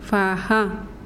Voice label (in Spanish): "ala", mazahua